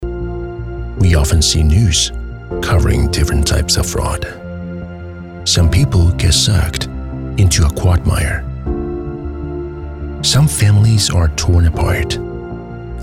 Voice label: 英 男 美式 广告 中年音色

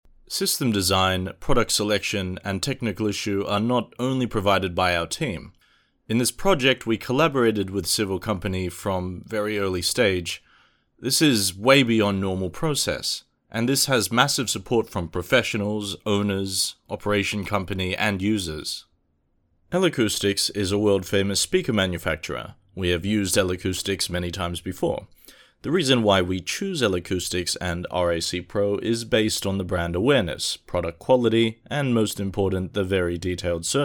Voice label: 外籍英语男声 受访发言 自然口语化

